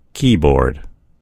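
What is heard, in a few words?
"keyboard", US English; gcompris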